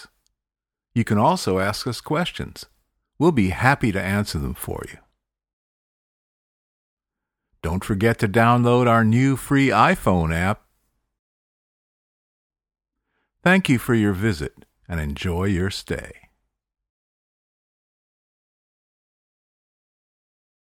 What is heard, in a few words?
Website voice only, Commercial, English US, American; Durex